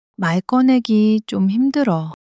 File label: sadness